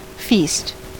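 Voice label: "feast", US English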